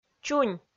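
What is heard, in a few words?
"чунь", Komi-Zyrian, female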